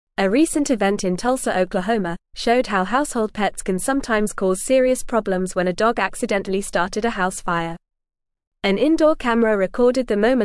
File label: English Upper Intermediate FAST Reading; Pets and Household Hazards A Cautionary Tale; English Newsroom